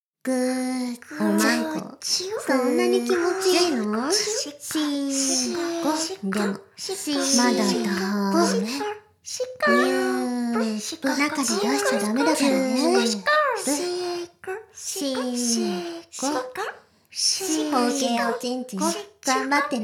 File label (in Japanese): しこしこ Ｈなセリフ Mix, ASMR; 魔法少女マスカリマッスル